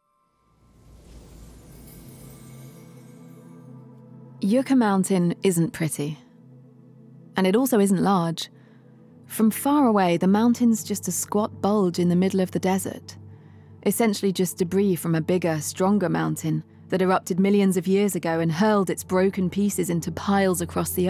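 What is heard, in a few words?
ENGLISH Audiobook; voix-off; MAM Paris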